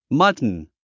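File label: "mutton", English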